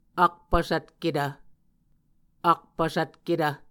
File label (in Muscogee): "akpvsvtketv", Muscogee